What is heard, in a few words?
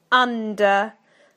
"under", UK; PictureDictionary